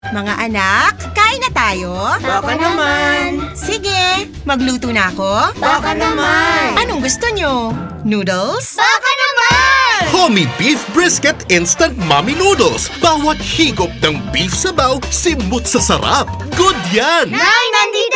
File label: Tagalog (Mother, Radio)